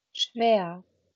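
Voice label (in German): "schwer", German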